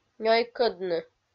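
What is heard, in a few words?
"нёйкӧдны", Komi, female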